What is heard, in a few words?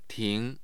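"ting2", Mandarin Chinese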